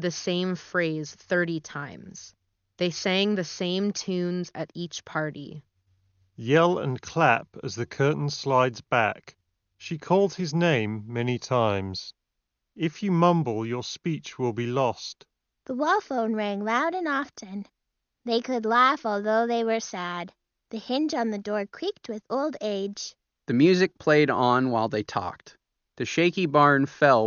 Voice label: Turtle Beach Stealth 600 Gen 2 MAX Ideal microphone sample